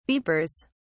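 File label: "beepers", English, British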